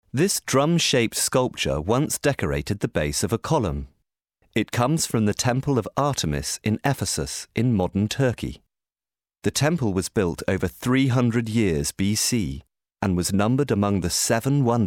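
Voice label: British audioguide; sprecher; englisch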